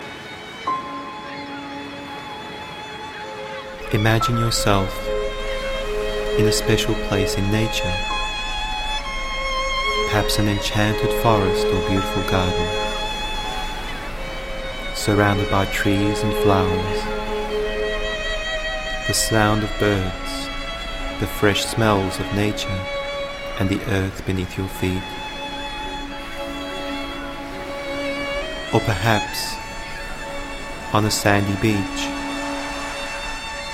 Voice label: meditation for healing